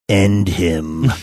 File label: saying end him deep voice